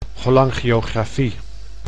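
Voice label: "cholangiografie", Dutch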